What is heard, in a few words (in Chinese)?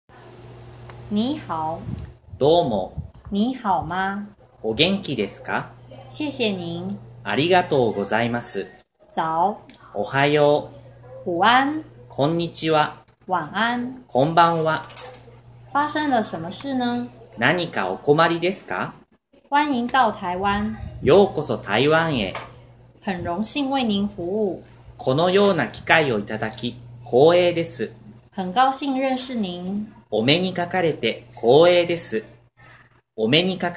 日本語日常問候用語